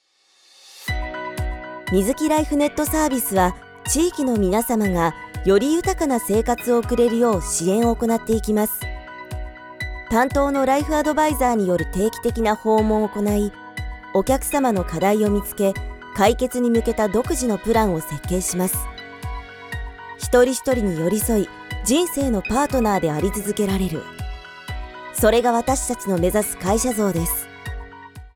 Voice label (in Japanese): 企業ＶP ストレートナレーション; 美月ライフーサービス